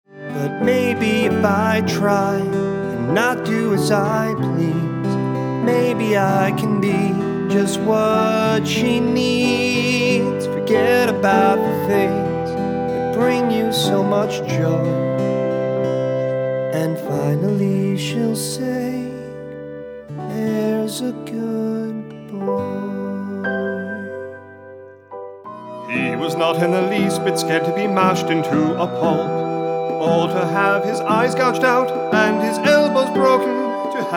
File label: Musical Voiceover